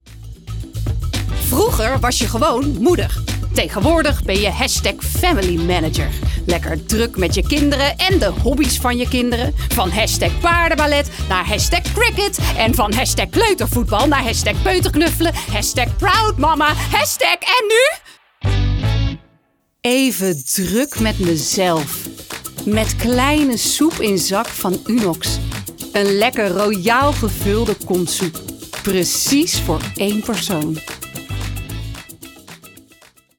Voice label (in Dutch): Commercieel hysterisch expressief met muziek, Voice over; Soep in zak